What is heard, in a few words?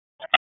Police Tac; Raleigh; Northwest District; wake